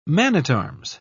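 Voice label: "man-at-arms", English, uk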